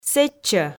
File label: "сэтчӧ", Komi